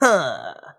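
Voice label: "huh", vocal sample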